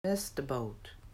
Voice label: "miss the boat", English